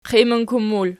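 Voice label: "qiminkummul" nominative, Archi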